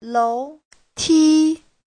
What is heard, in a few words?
"lou2ti1", chinese